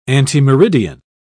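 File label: "antemeridian", British English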